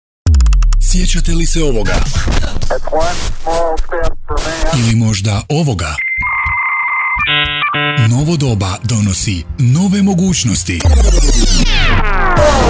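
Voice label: croatian male commercial; voice demos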